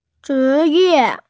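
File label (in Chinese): "zhi2ye4", Mandarin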